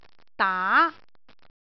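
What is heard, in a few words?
"daa2", Cantonese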